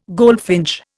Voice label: "goldfinch", Indian

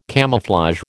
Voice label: "camouflage", American

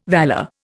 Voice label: "valour", Indian

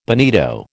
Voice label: "bonito", American

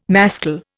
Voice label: "mascle", Indian

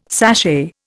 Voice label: "sashay", Indian

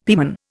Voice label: "pieman", Indian; Dictionary

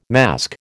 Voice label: "mask", American

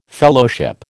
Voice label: "Fellowship", American

